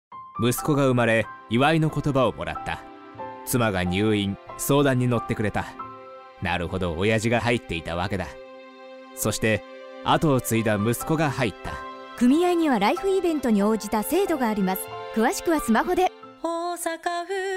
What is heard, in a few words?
radio; osaka